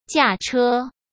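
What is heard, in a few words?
"驾车", Mandarin Chinese; hsk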